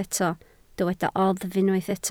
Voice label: "oddf" Welsh

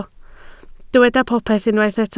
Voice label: "popeth" Welsh

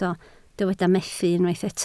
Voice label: "methu", Welsh

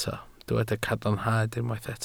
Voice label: "cadarnhad", Welsh

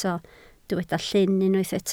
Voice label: "llyn", Welsh

